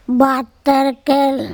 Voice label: night, late-batErkEl, Andamanese